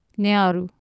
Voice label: "nearu", Anglo Saxon